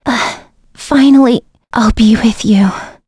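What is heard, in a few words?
Vox Dead, voices heroes English; kingsraid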